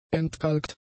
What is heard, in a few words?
"entkalkt", German; adjectives